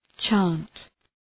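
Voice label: "chant", English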